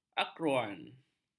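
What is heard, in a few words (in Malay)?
"akruan", Malay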